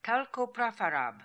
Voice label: "Talkoprafarab", pronounciations; Fourth Way; Beelzebub